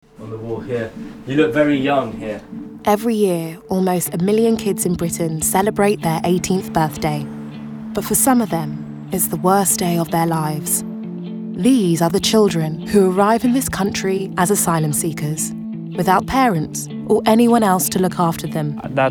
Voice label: narration

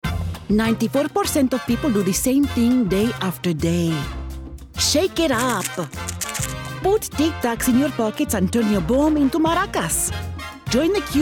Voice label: Character

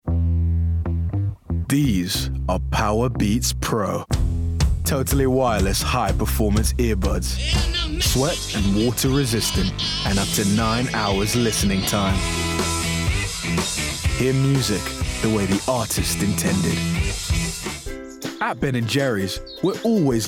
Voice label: commercials montage

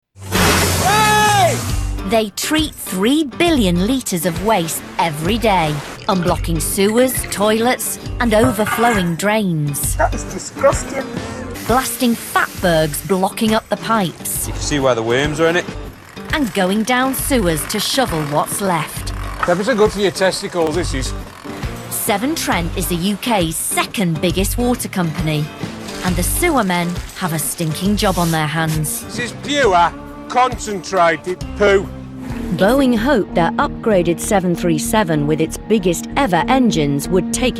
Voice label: narrative reel

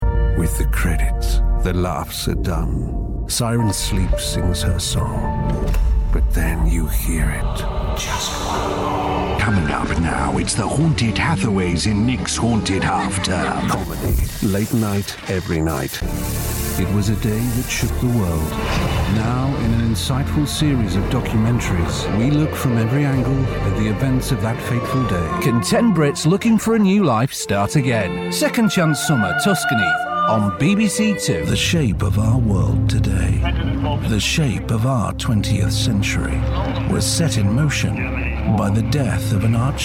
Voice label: Promo

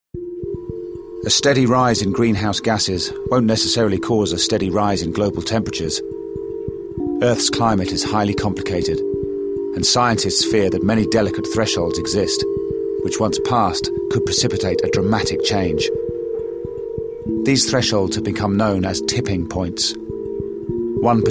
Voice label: narr

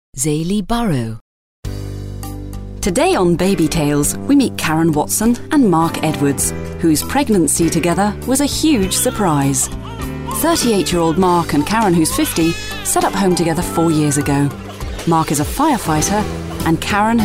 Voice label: narrative

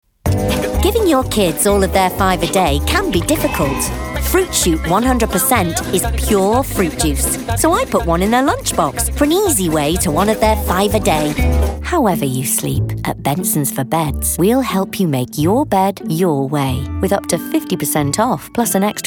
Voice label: commercial

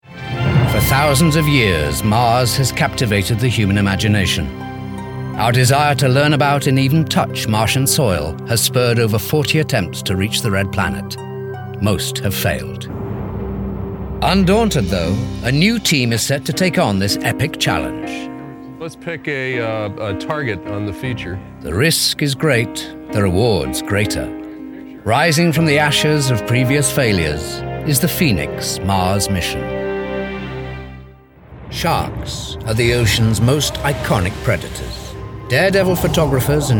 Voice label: Documentary

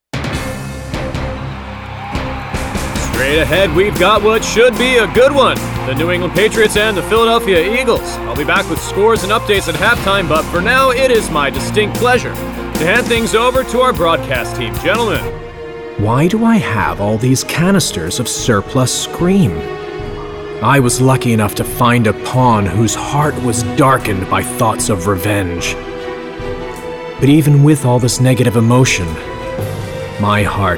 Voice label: character

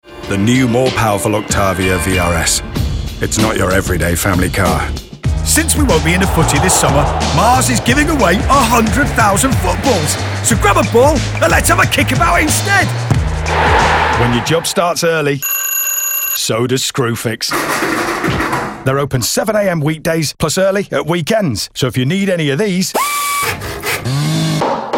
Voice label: commercials

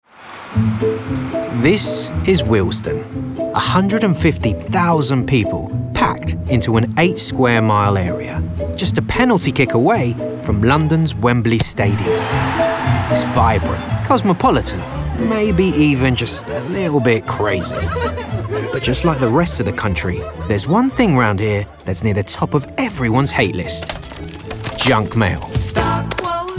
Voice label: narrative

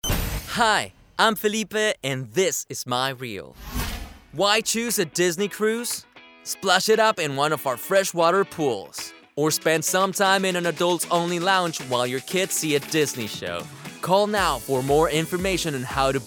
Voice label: Commercial English

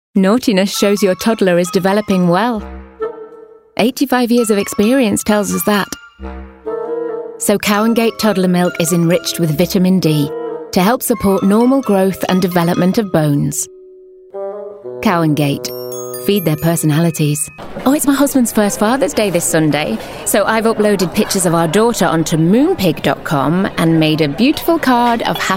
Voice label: commercial

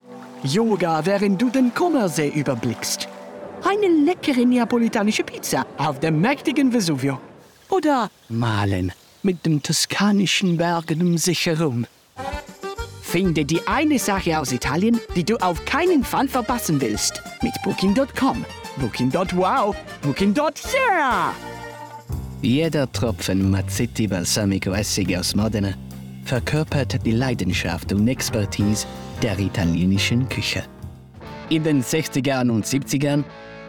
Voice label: German

